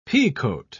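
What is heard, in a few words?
"peacoat", British English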